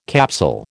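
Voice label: "capsule", American English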